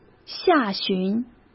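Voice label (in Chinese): "下旬", Mandarin Chinese; hsk; chinese-flashcards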